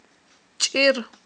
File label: "чIир", Aghul